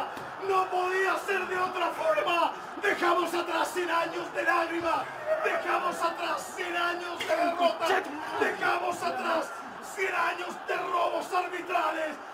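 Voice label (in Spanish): CHILE CAMPEÓN DESDE LA CABINA ÉPICA REACCIÓN Y RELATO, GOL; Claudio Palma y Aldo Schiappacasse; GOL CTM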